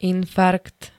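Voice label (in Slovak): "infarkt", Slovak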